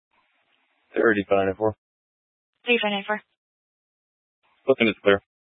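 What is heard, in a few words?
Police Dispatch, Scanner audio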